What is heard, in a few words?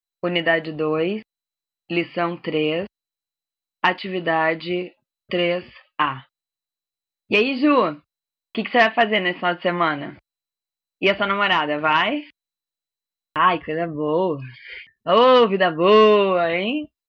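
Portuguese Brazil, female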